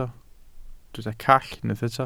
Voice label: "call", Welsh

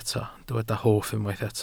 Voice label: "hoff", Welsh

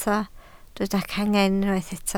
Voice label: "cangen" Welsh

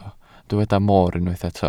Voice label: "moor", Welsh

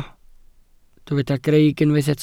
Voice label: "greic", Welsh

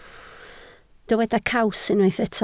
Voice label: "caws", Welsh

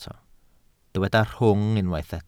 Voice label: "rhwng", Welsh

